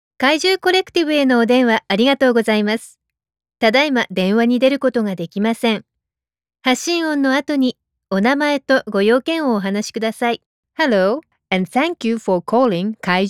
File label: voicemail